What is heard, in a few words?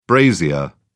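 "brazier", British English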